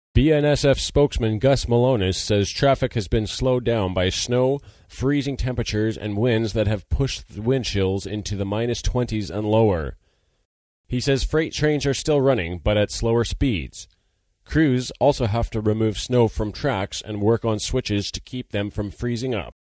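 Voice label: narration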